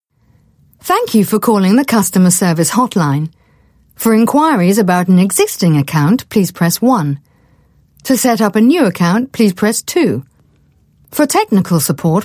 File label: UK English Telephone IVR